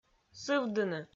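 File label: "сывдыны", Komi-Zyrian, female; audio dict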